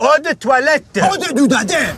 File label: french canadian